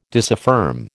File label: "disaffirm", American